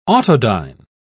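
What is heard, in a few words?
"autodyne", British English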